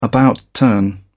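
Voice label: "about turn", English, British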